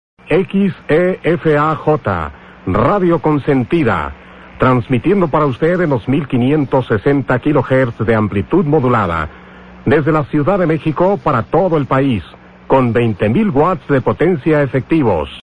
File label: Radio; XEFAJ; Radio Consentida; Mexico DF